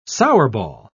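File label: "sourball", British English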